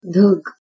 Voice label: "dhegwh" PIE